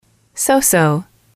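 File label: "so so", English, female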